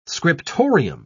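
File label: "scriptorium", British English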